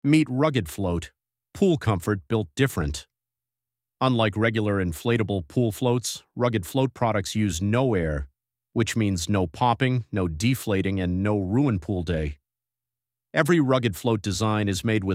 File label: male